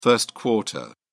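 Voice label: "first quarter", British English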